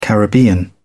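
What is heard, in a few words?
"caribbean", British English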